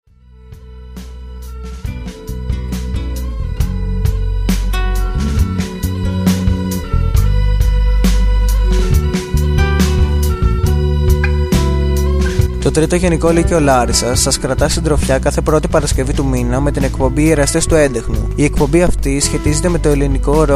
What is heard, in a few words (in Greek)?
SPOT; erastes entexnou 3o GEL Larisas